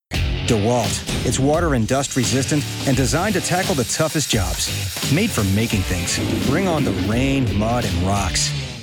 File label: Commercial Consumer Goods Tools